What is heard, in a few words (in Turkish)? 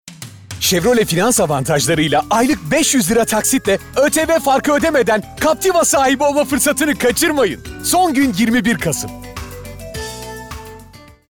Promosyon